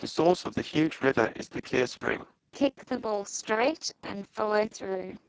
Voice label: speex codec